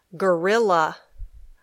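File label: "gorilla", English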